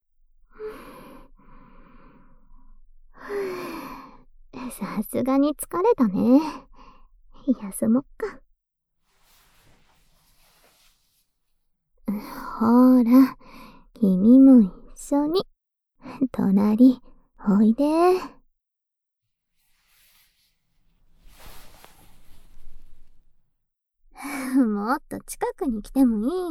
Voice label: H後、甘々ピロートーク, ASMR

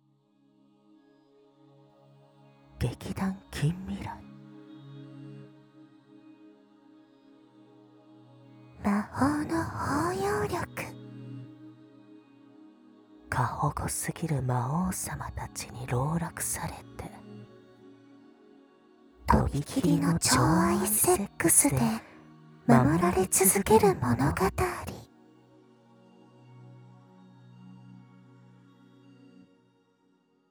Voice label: タイトルコール; 魔法の包容力…♪過保護すぎる魔王様たちに籠絡されて、とびきりの寵愛セックスで守られ続ける物語♪

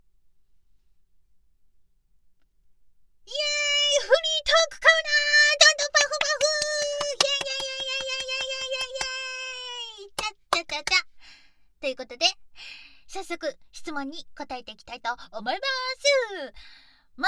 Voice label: フリートーク

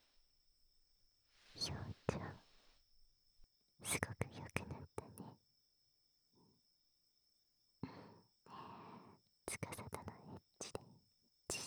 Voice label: 安眠誘導 よしよし 囁き 抱きしめ; 効果音あり 射精音あり

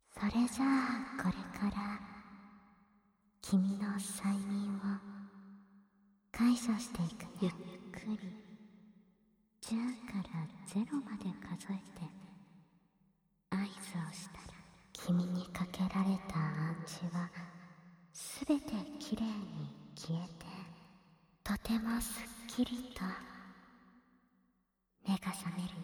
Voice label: 催眠解除, ASMR

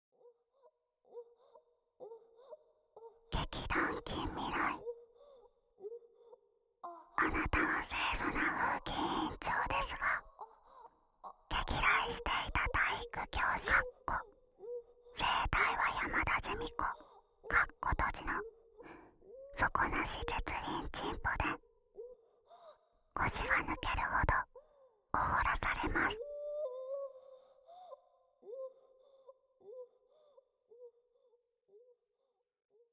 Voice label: タイトルコール

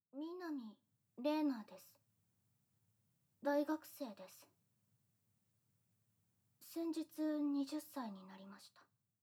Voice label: 催眠状態で自己紹介; 玲奈

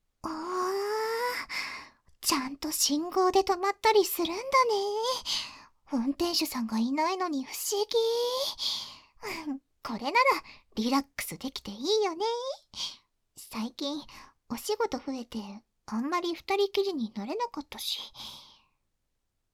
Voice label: マネージャーに痴漢しちゃお 囁き 耳舐め キス, ASMR; SE無し

